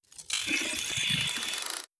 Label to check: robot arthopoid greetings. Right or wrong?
Right